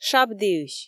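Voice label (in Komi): "шабдіысь", Komi, female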